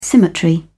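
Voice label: "symmetry", English, British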